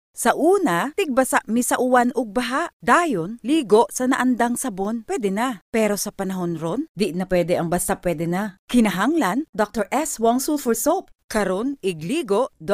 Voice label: Cebuano

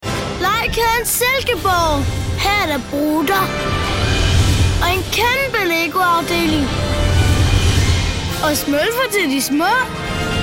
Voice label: DANISH boy, children

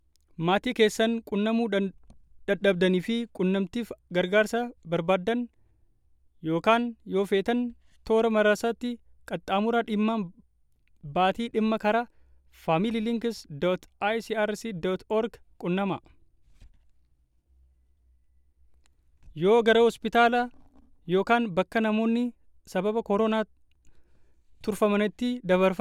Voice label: Oromo

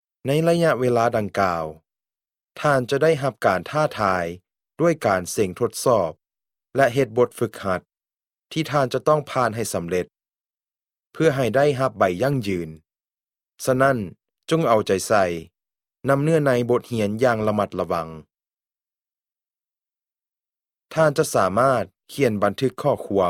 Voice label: LAO

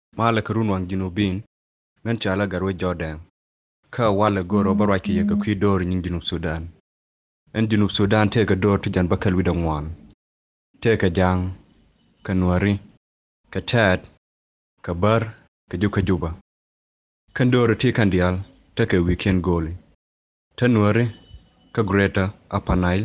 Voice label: Nuer

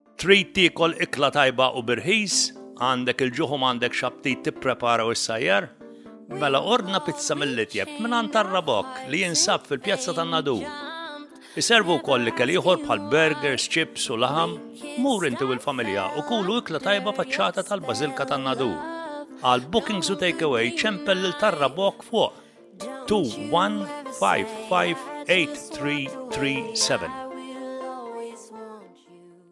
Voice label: MALTESE

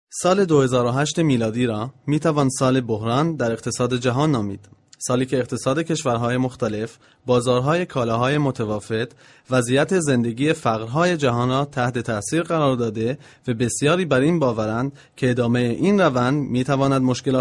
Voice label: Farsi

